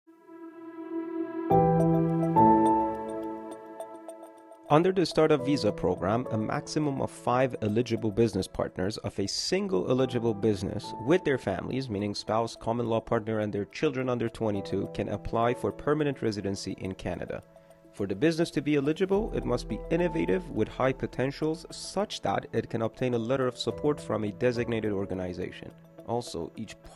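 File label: English, voices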